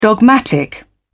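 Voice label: "dogmatic", British English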